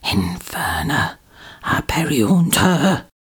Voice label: "inferna aperiuntur" whisper, incantations older female; fire spells; psfx